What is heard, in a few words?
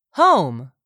"home", English; 3000 words